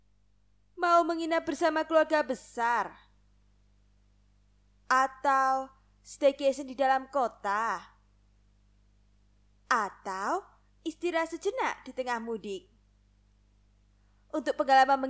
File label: INDONESIA CASUAL